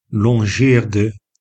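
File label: "longeerde", Dutch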